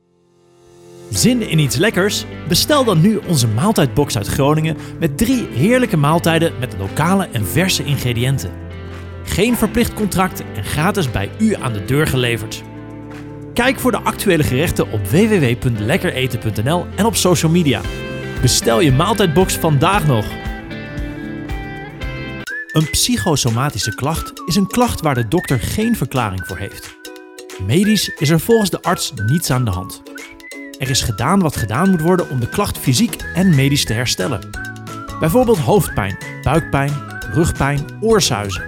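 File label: Dutch, male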